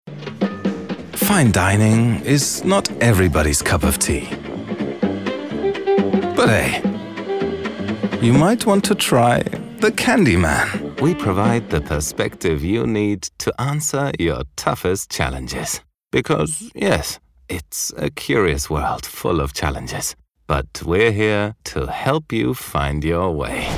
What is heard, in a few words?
global english